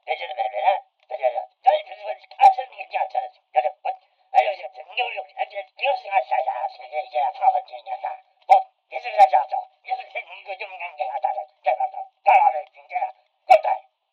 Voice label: Angry Phone Call